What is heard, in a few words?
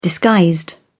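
"disguised", British English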